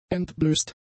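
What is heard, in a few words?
"entblößt", German; adjectives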